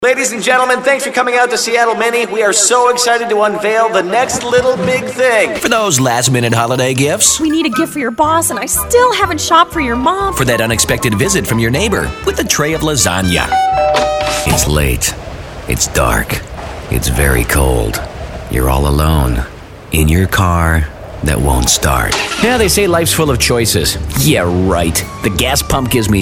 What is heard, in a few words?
Commercial